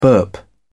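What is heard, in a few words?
"burp", British English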